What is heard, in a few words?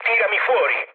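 Italian bailout mood low, speech; War Thunder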